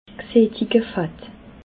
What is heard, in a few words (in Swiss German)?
"gsattigte fatt", alsace